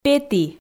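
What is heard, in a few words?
"петі", Komi, female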